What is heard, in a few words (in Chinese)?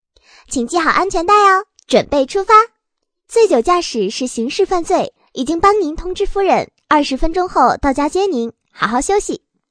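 女模仿 人工智能女声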